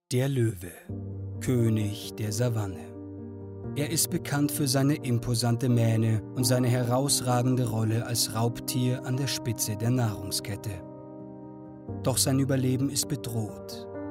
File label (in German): Synchron Doku